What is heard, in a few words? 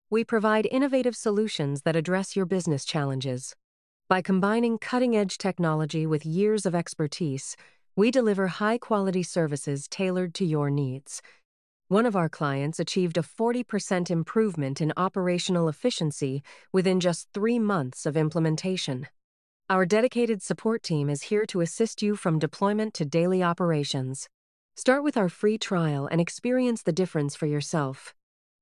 Calm Woman Smooth Warm Polished